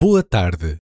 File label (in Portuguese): "Boa tarde", Portuguese